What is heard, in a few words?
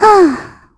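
Vox Think, Korean; Estelle; kingsraid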